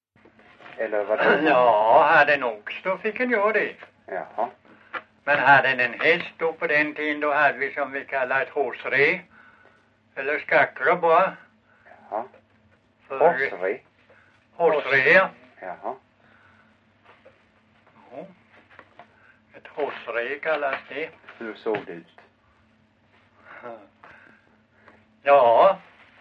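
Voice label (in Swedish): Långlöt Småland, svenska sagor dialekter; Folkminnen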